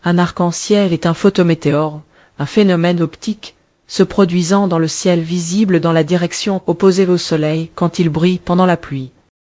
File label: speaker, fr FR; piper voices